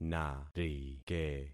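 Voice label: "narike", concatenated syllables; Affixing experiment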